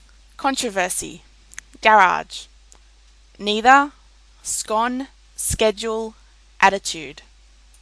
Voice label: female, Australia; 6 words

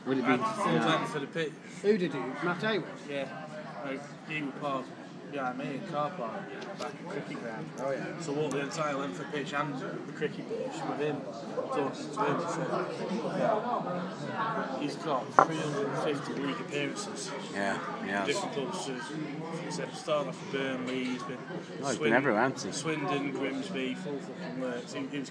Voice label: pub chat

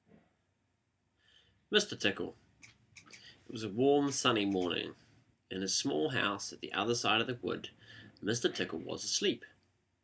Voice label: male, New Zealand; mr tickle; christchurch

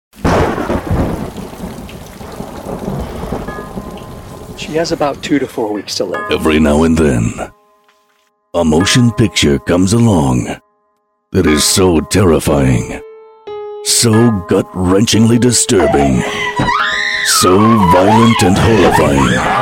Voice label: vo trailer

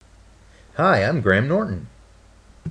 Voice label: impression; graham norton

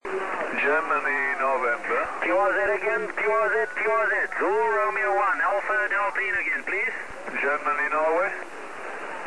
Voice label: on 6m SSB

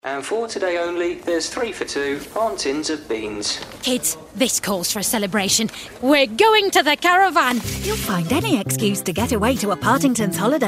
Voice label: radio; newton hall caravan park; north west

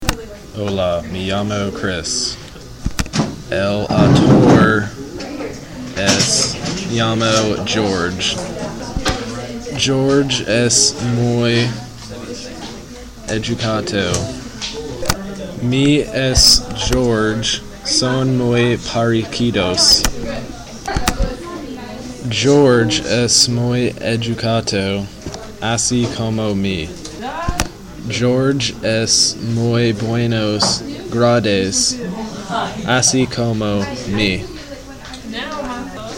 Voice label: spanish speaking exam

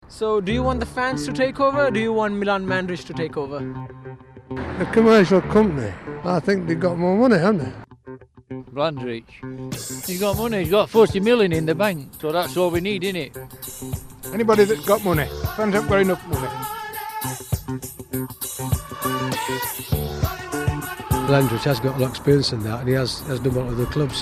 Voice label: voxpop; swfc

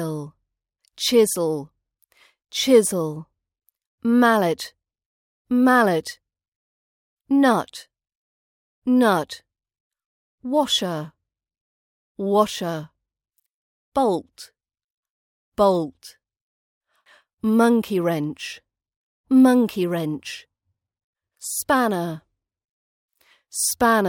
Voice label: british accent vocab practice; workshop tools

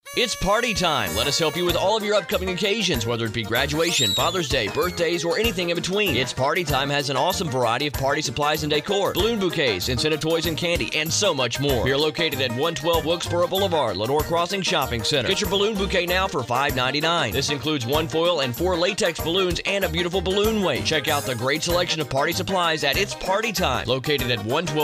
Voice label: radio commercial

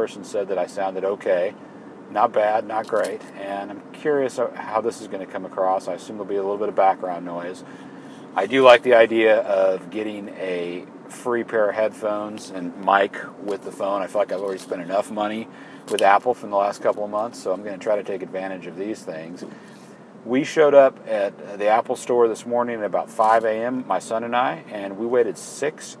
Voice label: iphone 4 mic test

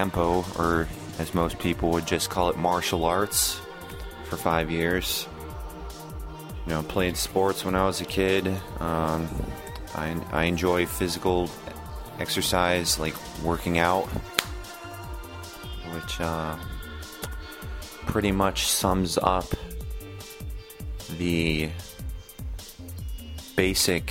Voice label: self intro w music bed

